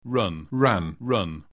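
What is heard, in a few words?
"run", English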